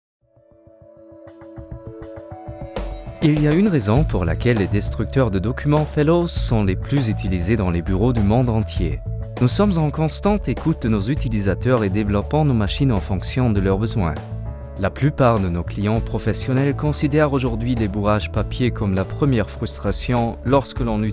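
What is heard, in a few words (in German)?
sprecherprobe französisch aus imagefilm; fellowes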